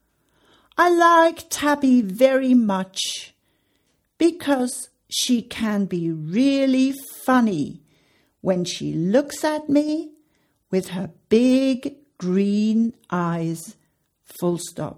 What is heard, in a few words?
dictation Tom is talking about his cat; englisch